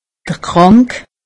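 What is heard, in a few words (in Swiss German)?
"krank", Alsatian; medecine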